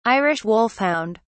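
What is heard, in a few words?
"irish wolfhound", British English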